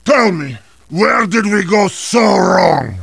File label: heavy jeers; tf2